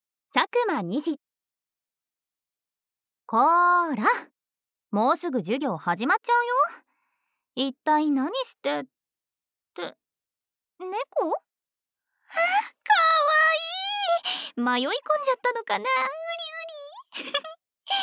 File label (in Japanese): voiceactor woman